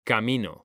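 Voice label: "camino", Spanish, male; mekanta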